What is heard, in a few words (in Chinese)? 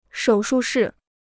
"手术室", Chinese